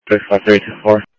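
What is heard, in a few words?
Sheriff East County, scanner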